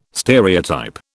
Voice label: "stereotype", British